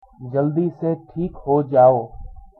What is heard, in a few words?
get well soon hindi